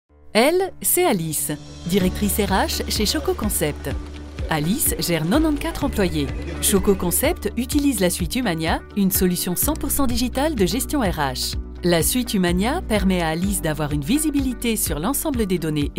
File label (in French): Voix off video; Umania